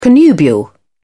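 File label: "connubial", British English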